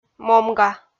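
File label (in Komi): "момга", Komi, female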